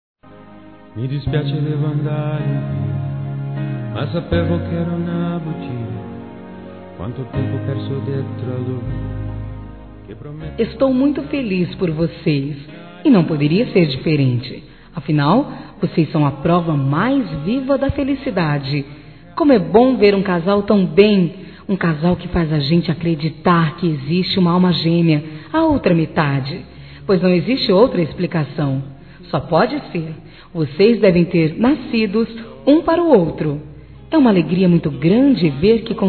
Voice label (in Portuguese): Aniversário Casamento Geral Feminino, Telemensagens; Aniversários